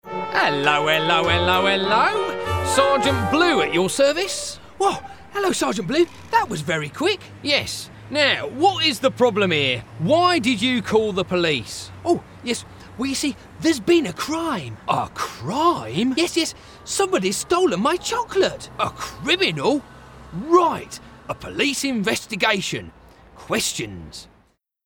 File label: male british english cartoon comercial; ideasonora